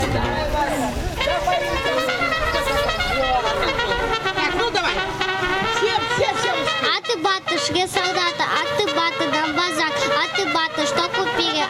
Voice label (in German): kinderfestgedicht; susdal